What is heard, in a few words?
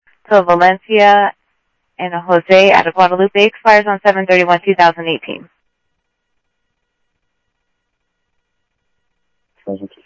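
Sheriff East County, scanner audio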